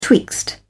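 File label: "twixt", English, British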